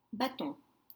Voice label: "baton", French; stick